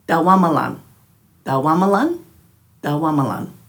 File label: "dhawamalanj", Dhurga; many; man, collective term for Aboriginal people of the NSW South Coast; dulagaal